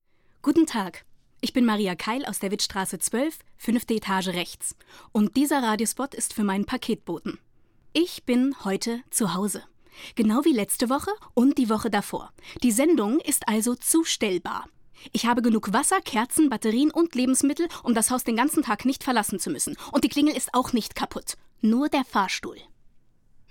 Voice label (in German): Werbung; Demo Radiospot